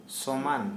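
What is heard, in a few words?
Santali male flat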